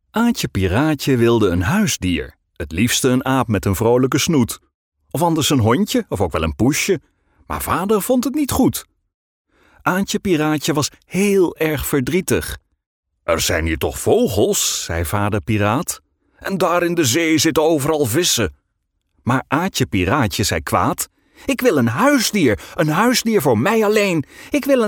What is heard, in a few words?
audiobook children